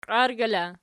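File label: "qiargyla" nominative, Archi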